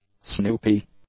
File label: "snoopy", english; adjectives